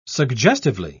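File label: "suggestively", British English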